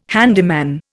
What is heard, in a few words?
"handyman", Indian